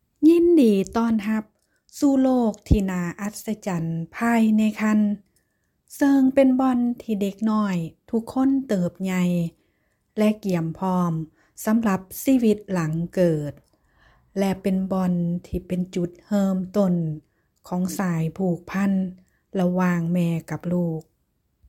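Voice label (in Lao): Lao, Educational; GoLocalise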